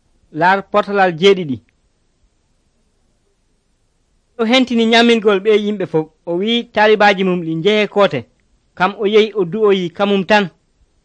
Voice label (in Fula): pulaar fulacunda, drama; senegal; pulaar bible society of senegal nt drama